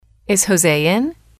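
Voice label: is X in, English, female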